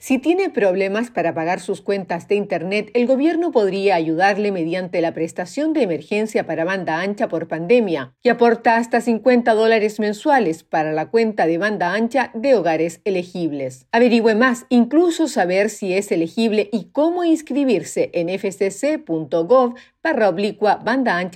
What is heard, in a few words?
spanish psa; Emergency Broadband Benefit; Audio PSA